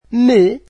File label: portuguese me